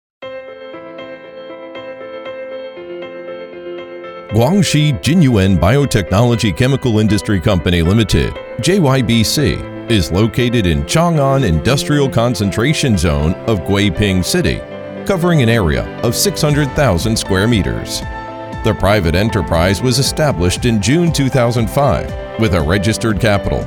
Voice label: 外籍 大气; 金源企宣

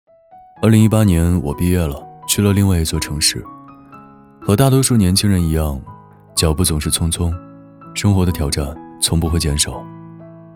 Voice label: 素人 年轻走心; 生活的挑战

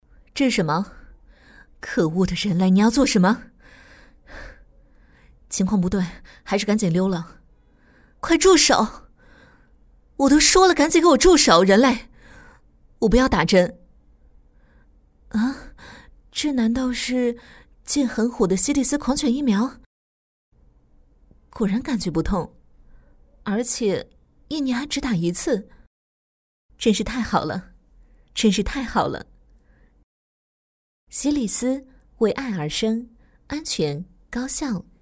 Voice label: 角色 御姐 模仿宠物

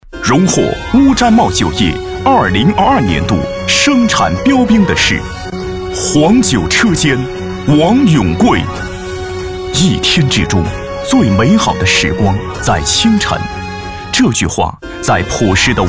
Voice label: 颁奖 大气激情

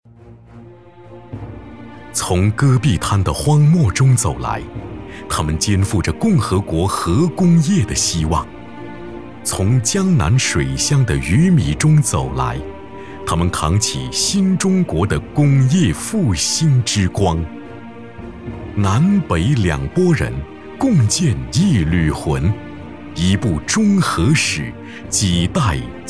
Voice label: 专题 国企宣传风格

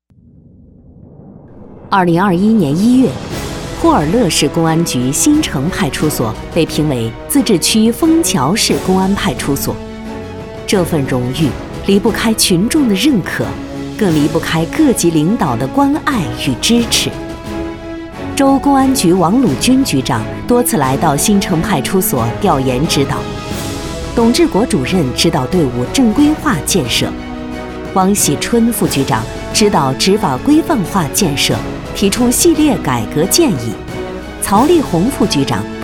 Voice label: 专题 力度正式成熟

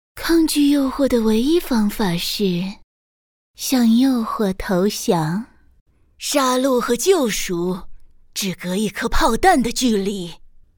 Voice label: 角色 游戏动漫魅惑女王少御各角色

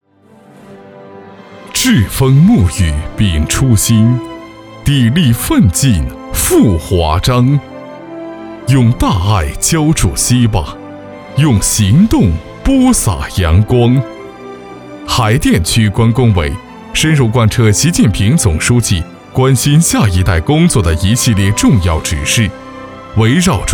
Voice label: 党政专题 大气 浑厚

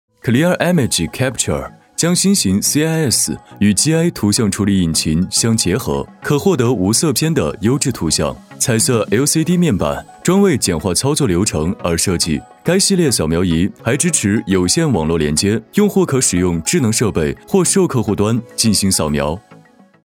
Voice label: 轻松科技 时尚; 富士通; Capture

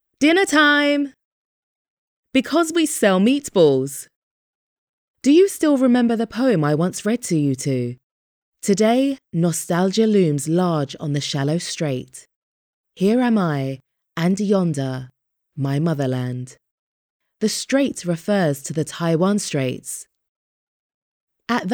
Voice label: 外籍 英 妈妈旁白

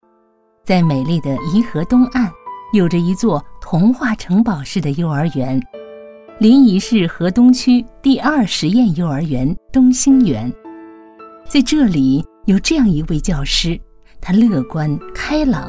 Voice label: 专题 温柔 幼儿园老师

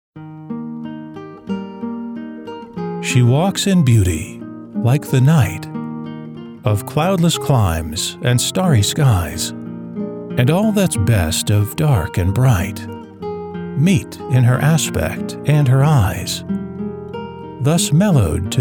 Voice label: 外籍 舒缓深情

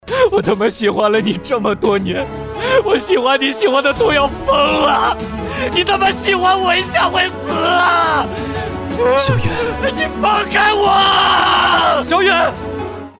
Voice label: 角色 青年音 广播剧

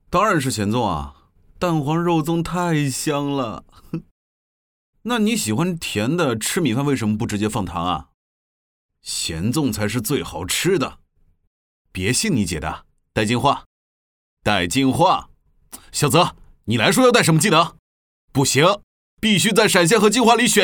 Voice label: 角色 年轻男生 偏素人

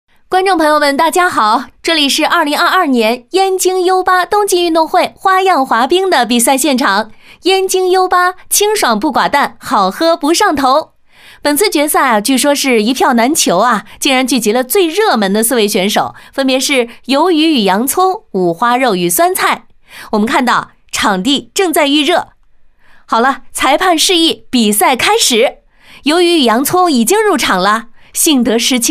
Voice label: 解说 现场主持比赛体育冬奥会

